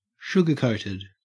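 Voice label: "sugarcoated", Australian English; pronunciations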